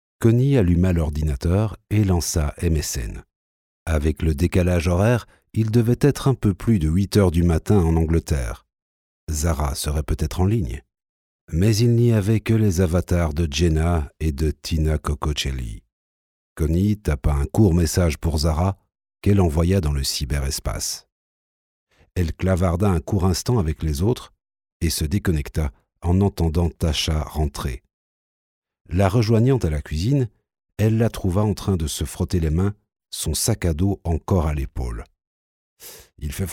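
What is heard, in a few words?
French AudioBook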